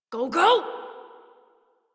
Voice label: Steal Horse Adult, en; Warframe; Duviri; Cinematics